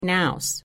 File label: "naus", Pennsylvania Dutch; n in nest